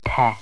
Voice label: voiced, mazatec